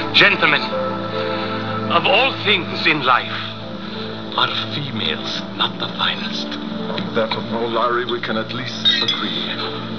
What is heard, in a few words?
female